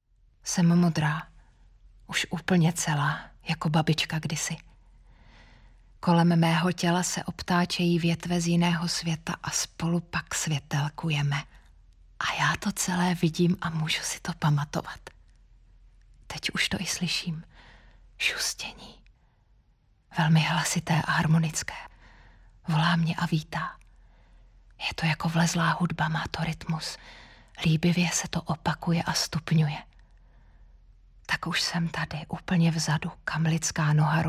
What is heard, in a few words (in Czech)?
dramatic